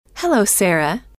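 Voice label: "hello", female; trainer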